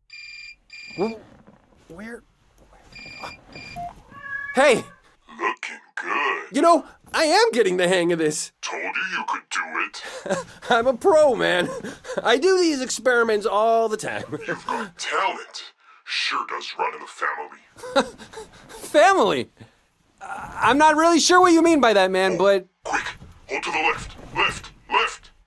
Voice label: tutorial cyclist intro; Blind Drive